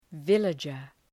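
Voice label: "villager", English word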